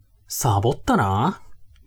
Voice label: man